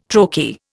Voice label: "trochee", Indian